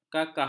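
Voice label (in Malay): "kakah", Malay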